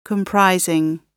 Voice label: "comprising", gb